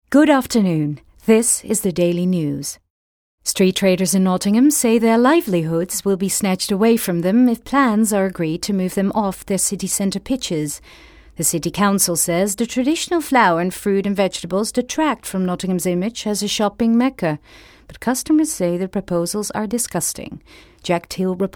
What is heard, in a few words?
VOICE OVER BRITISH NEWS